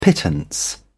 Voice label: pittance, uk